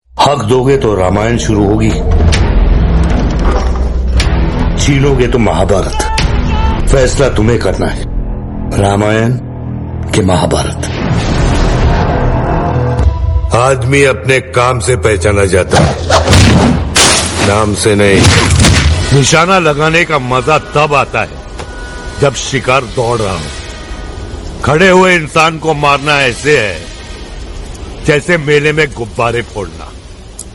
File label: attitude dialogue hindi; sanjay dutt